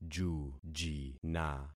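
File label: "jujina", concatenated syllables; Affixing experiment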